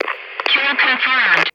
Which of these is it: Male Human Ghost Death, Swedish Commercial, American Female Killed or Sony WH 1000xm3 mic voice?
American Female Killed